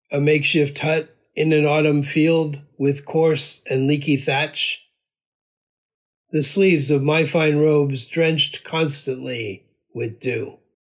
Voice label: Poem English; one-hundred-poems-from-old-japan